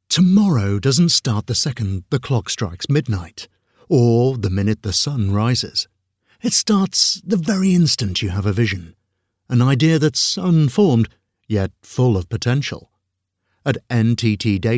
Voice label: voiceover corporate inspirational company overview